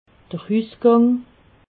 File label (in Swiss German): "husgang", alsace